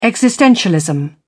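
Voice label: "existentialism", British English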